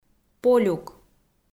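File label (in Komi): "полюк", Komi, female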